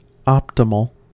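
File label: "optimal", American English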